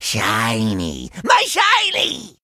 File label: Male Primitive Goblin Play